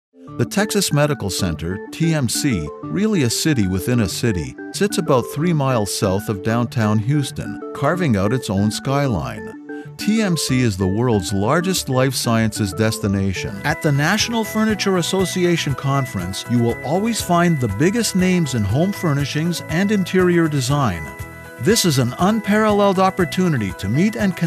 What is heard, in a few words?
Promos Compilation TV Radio Internet